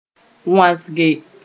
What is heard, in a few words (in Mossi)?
"wãsge", moore